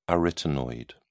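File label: "arytenoid", British English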